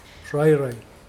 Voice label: long, Mokilese